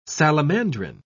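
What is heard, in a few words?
"salamandrine", British English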